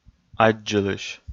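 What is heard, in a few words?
"аддзылысь", Komi-Zyrian, male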